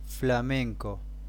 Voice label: Flamingo spanish